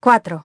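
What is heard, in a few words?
"four", English; iOS 4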